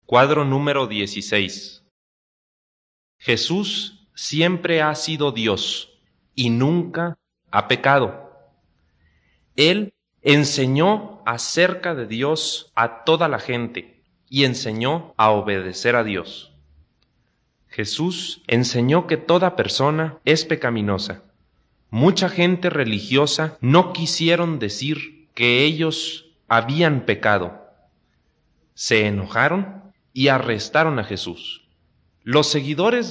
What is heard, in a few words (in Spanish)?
good news spanish mexico